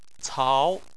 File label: "cao2", chinese